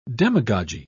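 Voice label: "demagogy", British English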